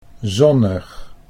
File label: "zonnig", Dutch